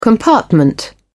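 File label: "compartment", British English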